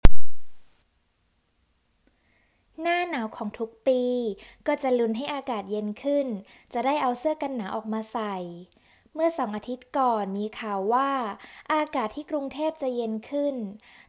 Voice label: Winter voice